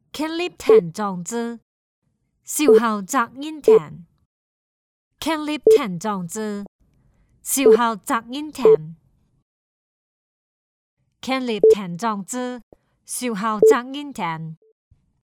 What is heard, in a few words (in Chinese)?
女 客家话; 方言配音